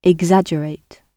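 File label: "exaggerate", British English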